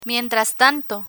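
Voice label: "mientras tanto" Spanish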